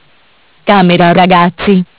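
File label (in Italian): "Camera ragazzi", Italian; Bentel; BLE320; MESSAGGI VOCALI; Audio BGS220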